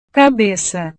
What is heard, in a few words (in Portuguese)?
"cabeca", portugues